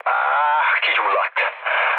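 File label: Hungarian on fire mood low, speech; War Thunder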